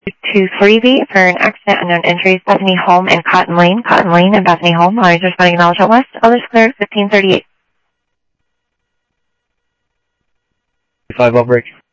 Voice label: Sheriff, scanner audio; MCSO - Info Sheriff - Common; South Scottsdale